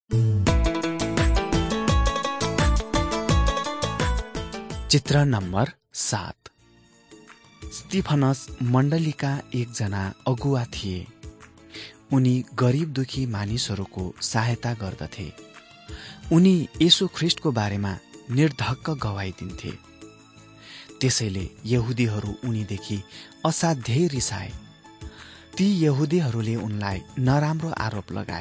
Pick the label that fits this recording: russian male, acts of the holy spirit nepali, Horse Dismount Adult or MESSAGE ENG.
acts of the holy spirit nepali